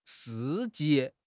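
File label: "事迹", Mandarin Chinese; HSK6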